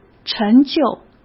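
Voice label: "陈旧", Mandarin Chinese; chinese flashcards; hsk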